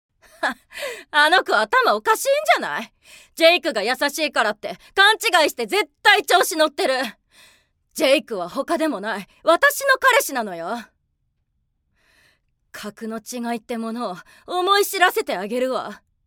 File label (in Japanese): 外画 ハイスクールクイーン